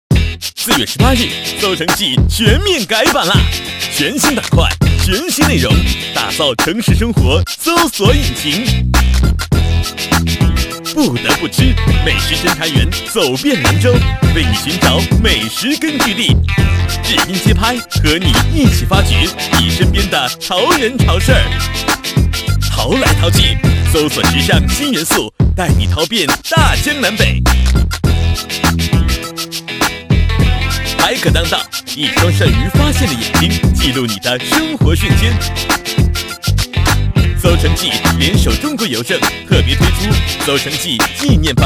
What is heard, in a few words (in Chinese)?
男 广告 文化宣传片, 浑厚大气; 国语男声配音